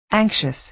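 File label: "anxious", American English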